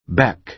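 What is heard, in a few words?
"beck", American English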